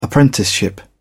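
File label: "apprenticeship", British English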